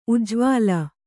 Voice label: "ಉಜ್ವಲ", Kannada

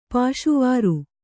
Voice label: "ಪಾಶುವಾರು", Kannada

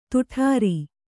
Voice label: "ದಡಾರ", Kannada

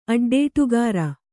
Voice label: "ಅಡ್ಡೇಟುಗಾರ", Kannada

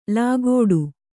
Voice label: "ಲಾಗೋಡು", Kannada

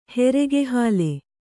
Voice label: "ಹೆರೆಗೆಹಾಲೆ", Kannada

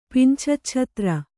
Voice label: "ಪಿಂಛಚ್ಛತ್ರ", Kannada

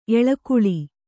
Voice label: "ಎಳಕುಳಿ", Kannada

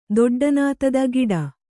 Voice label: "ದೊಡ್ಡನಾತದ ಗಿಡ", Kannada

